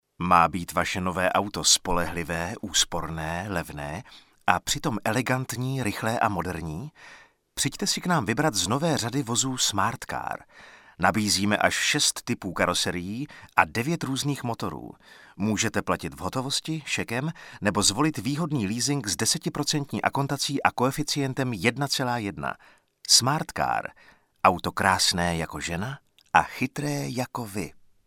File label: voiceover